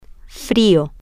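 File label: cold, Spanish, female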